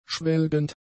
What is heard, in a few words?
"schwelgend", German; adjectives